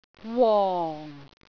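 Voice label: "wong4", Cantonese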